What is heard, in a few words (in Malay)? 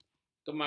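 "kemang", Malay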